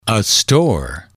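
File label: "a store", English, male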